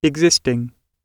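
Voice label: "existing", British English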